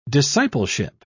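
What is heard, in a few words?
"discipleship", English, British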